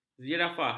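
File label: "zirafah", Malay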